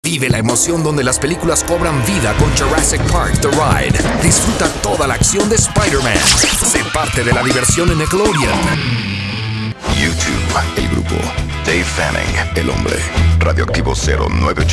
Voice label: Spanish Commercials Sampler, locucion